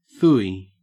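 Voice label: "phooey", Australian English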